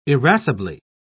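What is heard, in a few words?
"irascibly", English, British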